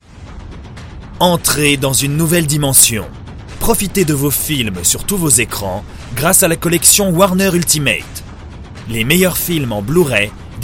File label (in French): pub grave autorite; voix off masculine; voix off masculine naturelle, posée, souriante